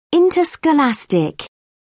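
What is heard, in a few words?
"interscholastic", British English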